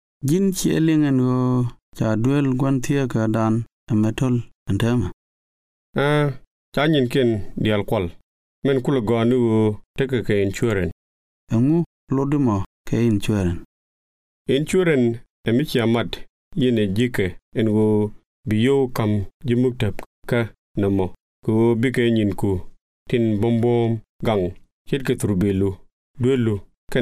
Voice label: insurance nuer